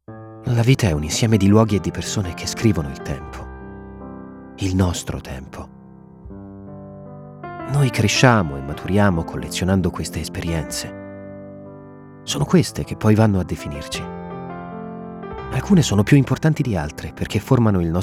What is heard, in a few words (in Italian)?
lettura emotional